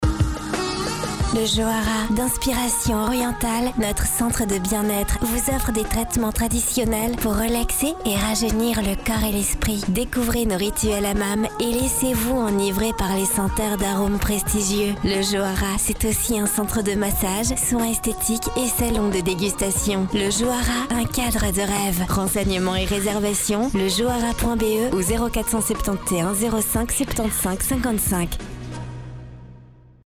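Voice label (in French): Voix off féminine spot radio; le Johara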